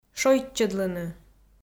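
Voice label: "шойччӧдлыны", Komi, female; kpv audio dict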